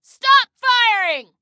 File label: Team Cease Fire, Female